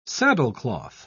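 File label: "saddlecloth", English, British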